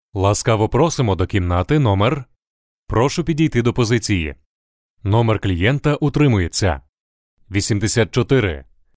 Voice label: ukr